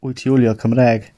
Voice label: do you speak, gwentian